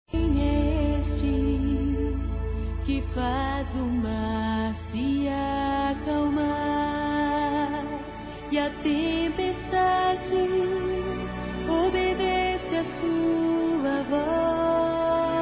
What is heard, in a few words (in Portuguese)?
NATAL E ANO NOVO PARA SOGRA, masc; Telemensagens; Misto